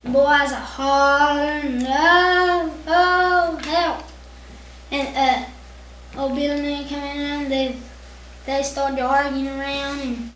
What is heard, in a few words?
Conversation with 13 year old male West Virginia; american english dialect